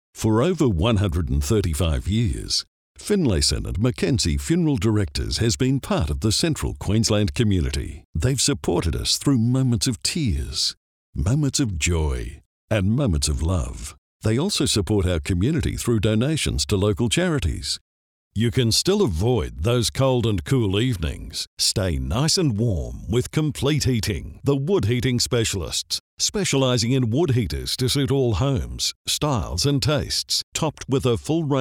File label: Soft Sell